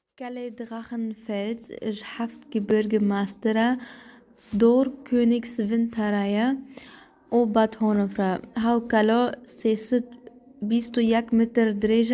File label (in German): kurdisch; Drachenfels komplett